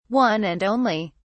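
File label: "one and only", English, British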